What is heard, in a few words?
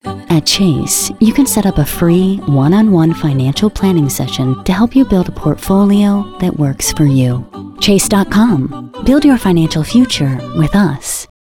VO Chase Spot; voice-over; Commercial Demo